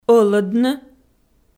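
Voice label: "ӧлӧдны", Komi, female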